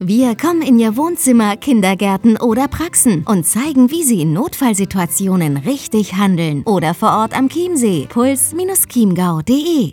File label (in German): Funkspot; PULS Chiemgau GbR